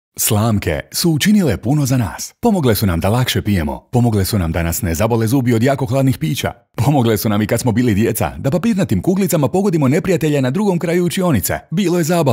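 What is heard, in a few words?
Croatian, male, commercial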